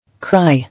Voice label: "Cry", English